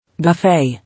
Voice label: "Buffet", English